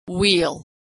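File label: "wheel", American English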